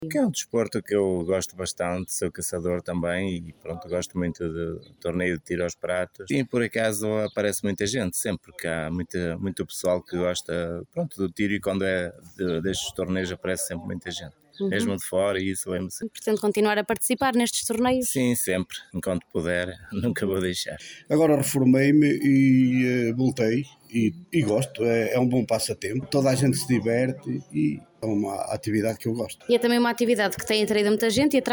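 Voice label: vox pop; atiradores; tiro aos pratos no campo de tiro de nogueirinha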